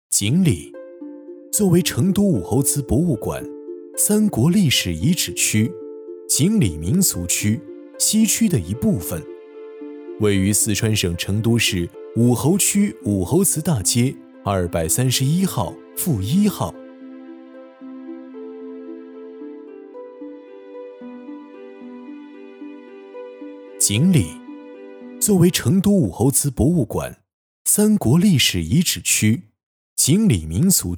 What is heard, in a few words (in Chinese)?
男 专题 纪录片 青年